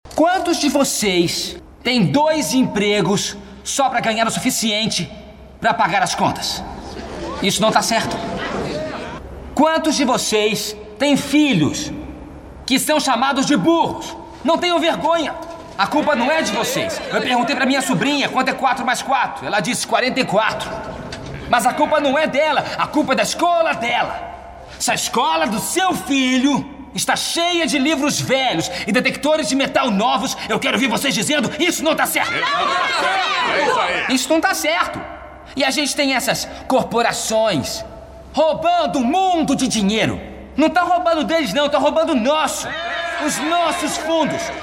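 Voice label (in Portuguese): Locução, Voz Padrão - Grave, Português - Brasileiro, Masculino; Locução para ÁGUA CRYSTAL (Coca-Cola)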